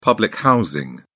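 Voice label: "public housing", British English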